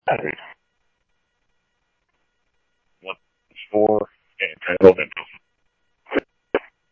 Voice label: Police, scanner audio trunk; H-Scottsdale

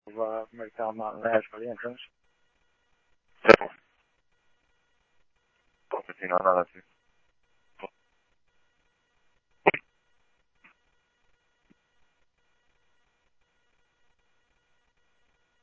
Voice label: Scottsdale Police, scanner audio; H-Scottsdale; SCTSDLE PD - PD2

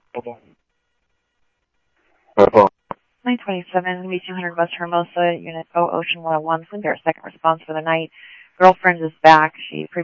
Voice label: Tempe Police, scanner; SimulcastF-Tempe

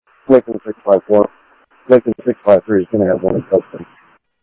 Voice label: Sheriff, scanner audio

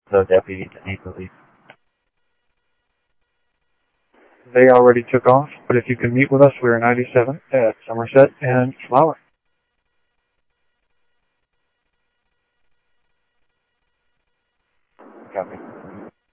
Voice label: Mesa Simulcast, MESA PD, scanner audio

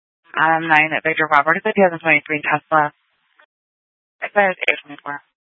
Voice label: Sheriffs Office, scanner

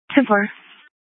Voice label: Police Dispatch

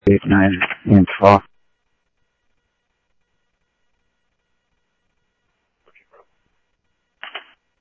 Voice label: Phoenix PD, scanner audio

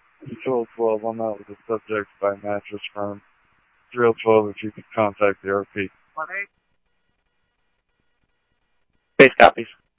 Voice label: PD Police, scanner audio

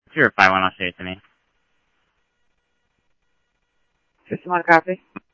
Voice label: Tempe Police, scanner audio

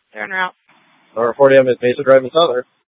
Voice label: Superstition Dispatch, Mesa PD

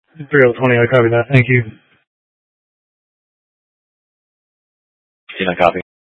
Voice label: Scottsdale Police, Simulcast

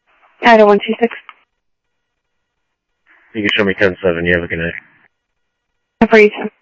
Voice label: scanner audio trunk

